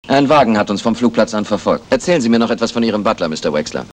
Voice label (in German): Hörprobe des deutschen Synchronschauspielers; Lex Barker; Die Verdammten der blauen Berge